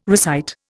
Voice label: "recite", Indian

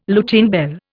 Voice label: "Lutine bell", Indian

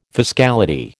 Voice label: "fiscality", American

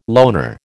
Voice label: "loner", American

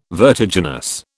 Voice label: "vertiginous", British

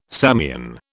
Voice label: "Samian", British

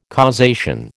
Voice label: "causation", American

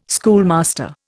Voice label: "schoolmaster", Indian

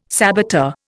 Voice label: "saboteur", Indian

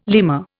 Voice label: "lemur", Indian

